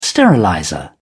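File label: "sterilizer", British English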